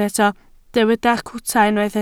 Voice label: "cwta", Welsh

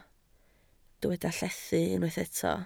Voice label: "llethu", Welsh

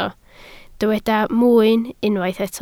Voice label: "mwyn", Welsh

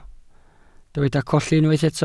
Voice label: "colli", Welsh

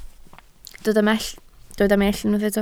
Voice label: "mellt", Welsh

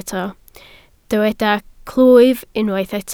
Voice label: "clwyf", Welsh

